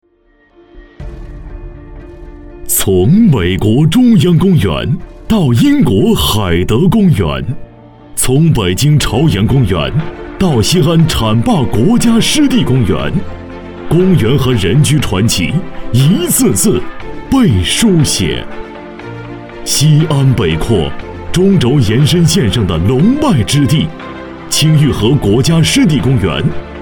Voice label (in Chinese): 男 宣传片 地产配音; 公园府邸